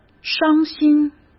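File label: "伤心", Mandarin Chinese; chinese-flashcards; hsk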